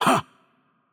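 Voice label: attack light, Russian; bloom minigame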